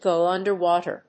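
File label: "go underwater", English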